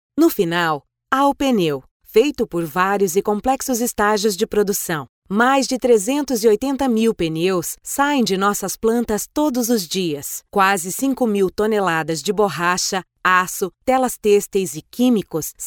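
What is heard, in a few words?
Brazilian Portuguese, female, narration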